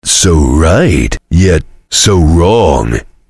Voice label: soldier jeers